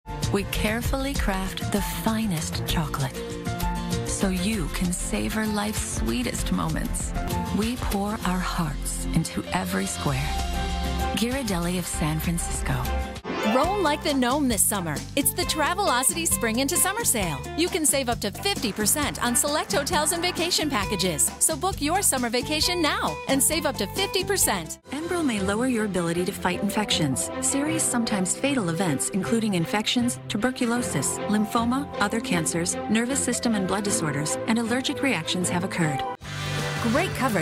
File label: comm VO